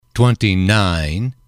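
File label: "twenty nine", English, male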